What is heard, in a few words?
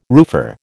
"roofer", American